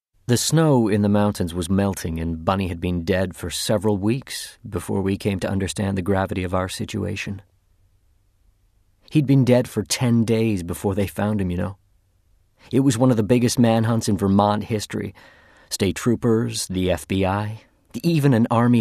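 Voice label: drama; 20-30s. US. Young, friendly and warm. Speaks fluent Arabic. Home Studio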